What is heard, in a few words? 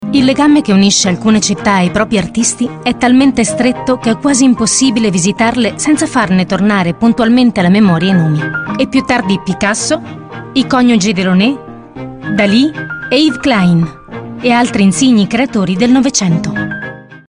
Italian